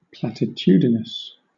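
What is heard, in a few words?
"platitudinous", British English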